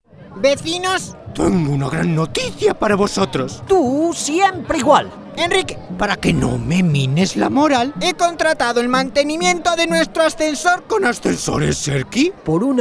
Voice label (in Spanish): imitador anuncio radio; cuña de radio local